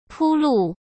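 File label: "铺路", Mandarin Chinese; hsk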